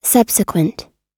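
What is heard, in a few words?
"subsequent", US English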